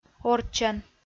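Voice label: "орччӧн", Komi-Zyrian, female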